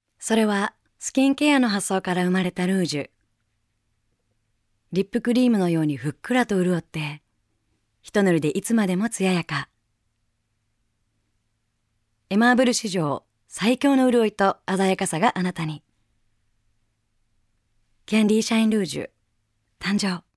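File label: Voice Sample Narration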